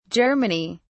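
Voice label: "germany", British English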